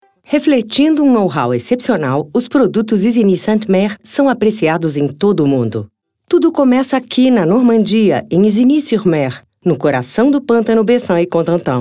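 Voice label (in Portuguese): Português - Brasileiro, Locução Institucional, Feminino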